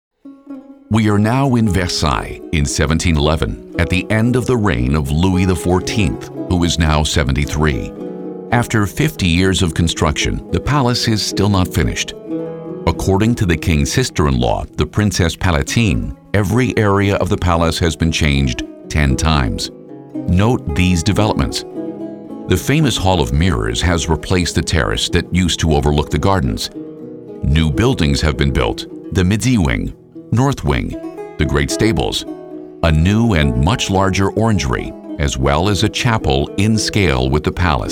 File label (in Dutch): Audio gids Algemeen, ENUS